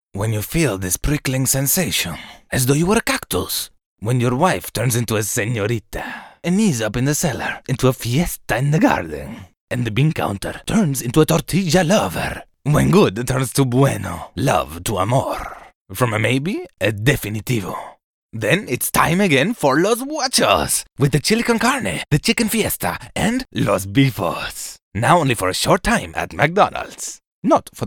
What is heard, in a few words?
English Mexican; McDonalds